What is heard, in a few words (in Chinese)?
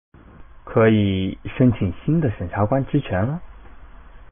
升级, BattleCry